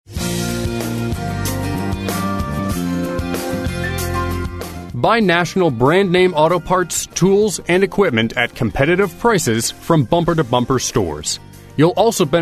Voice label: OnHold